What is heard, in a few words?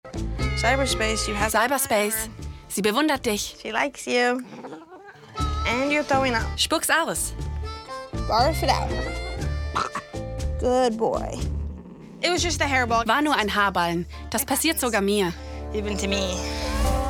Voice Over